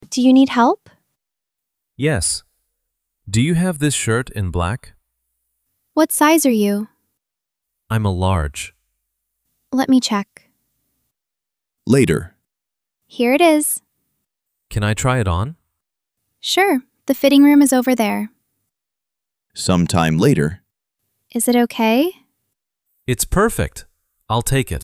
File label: Conversation clothes